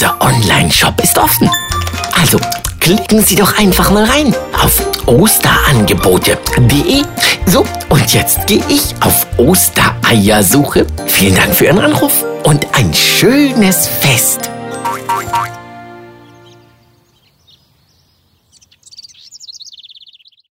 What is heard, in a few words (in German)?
Telefonansage Ostern